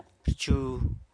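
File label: "rchu", San Lucas Quiaviní Zapotec; changes (something)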